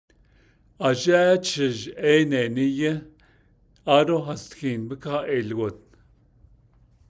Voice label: The father is chopping wood and the other man is, Navajo; haniłgéésh; Navajo Adverb Lexicon